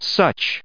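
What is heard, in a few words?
"such", US English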